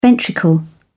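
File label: "ventricle", British English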